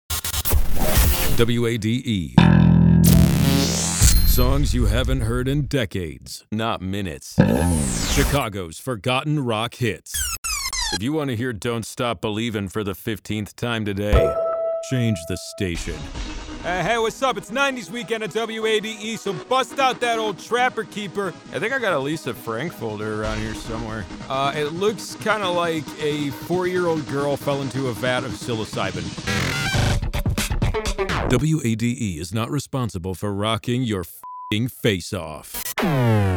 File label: radio imaging